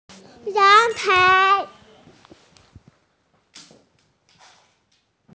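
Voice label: "balcony", female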